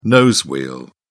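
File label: "nose wheel", British English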